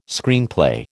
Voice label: "Screenplay", American